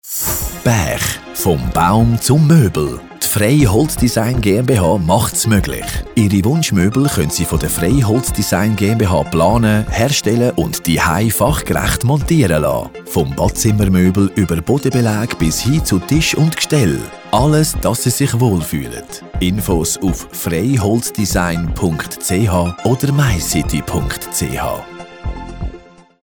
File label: radio spot